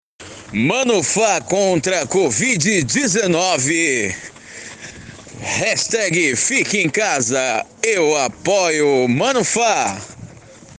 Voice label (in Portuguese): covd 19 locucao